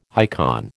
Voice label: "icon", American

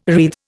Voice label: "wreathe", Indian

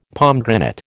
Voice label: "pomegranate", American

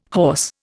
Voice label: "hoarse", Indian

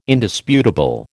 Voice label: "Indisputable", American

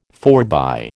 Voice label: "forbye", American

